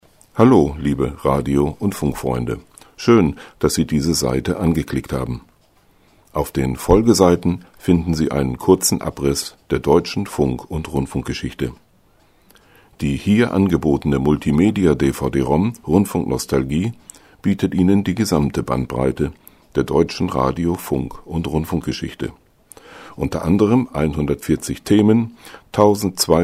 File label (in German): ansage